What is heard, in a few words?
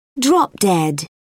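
"drop dead", British English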